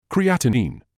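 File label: "creatinine", British English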